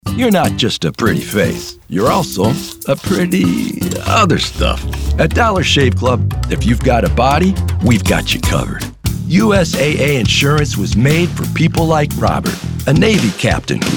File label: Commercial